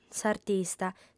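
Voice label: "s'artista", Sardinian female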